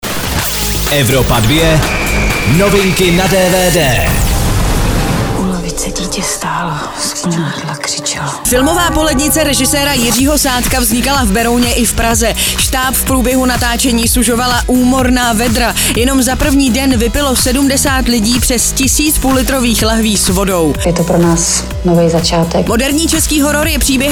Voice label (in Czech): DVD NEWS; evropa2; Polednice